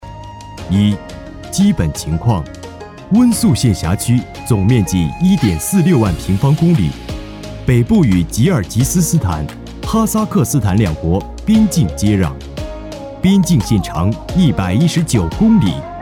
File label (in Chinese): 男79 温宿县; 实惠大气